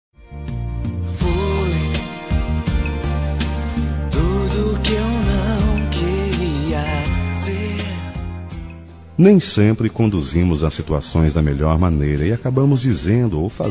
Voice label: ROM DESCULPAS MASC, Telemensagens; Telemensagens 2017

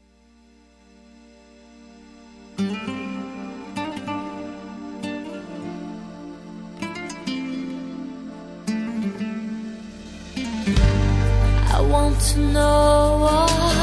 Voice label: DECLARACAO COM VOCE E FACIL SER FELIZ FEM; Telemensagens; Declaração de amor; Telemensagens 2017

